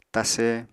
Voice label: "tase", apatani

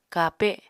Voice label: "kape", Apatani

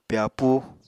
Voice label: "pyapúu", Apatani

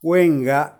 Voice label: "gwenga", cicada, animal, macuiltianguis

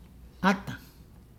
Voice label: "picture", Nukuoro

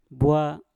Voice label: "bwa", Mpur; daun

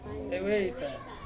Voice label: "veita" to climb, Ansus

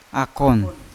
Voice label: "akon" eel, Yawa

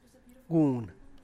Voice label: alms, Tlacochahuaya Zapotec; (Spanish) limosna; gune’ ni

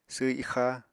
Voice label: "sww ixa", Apatani

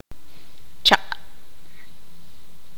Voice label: tzutujil; maduro

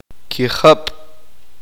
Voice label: uspanteko; cuatro

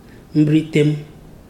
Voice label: "kind of plant", Ibibio

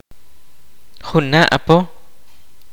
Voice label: "next year", kaqchikel

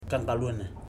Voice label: "chest", Ipili

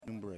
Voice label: "Pigs", Yimas

